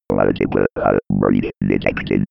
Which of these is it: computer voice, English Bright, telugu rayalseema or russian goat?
computer voice